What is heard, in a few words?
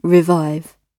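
"revive", British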